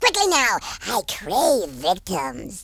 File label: hurry, speech, Horror